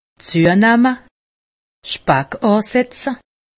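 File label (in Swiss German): "zunamme", Alsatian; medecine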